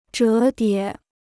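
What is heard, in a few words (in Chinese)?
"折叠" Chinese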